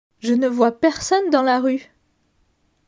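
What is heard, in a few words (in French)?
"Je ne vois personne dans la rue", French; grammaire; la negation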